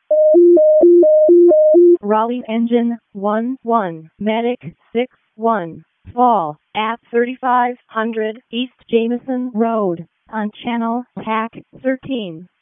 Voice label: EMS ALERT; wake

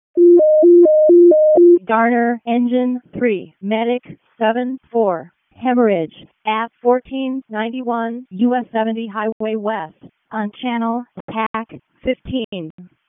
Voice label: WC EMS ALERT, p25

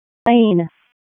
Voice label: EMS ALERT

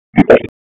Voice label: FIRE OPS, p25

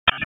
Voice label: Police District Tac, p25; wake p25

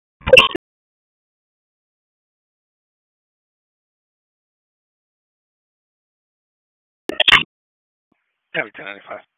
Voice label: Police LE Ops, p25; Raleigh; wake

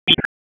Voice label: Police Tac, p25; Raleigh; North District; wake

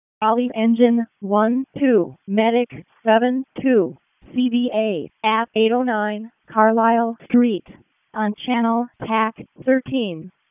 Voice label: WC EMS ALERT, p25; Wake

